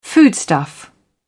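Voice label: "foodstuff", British English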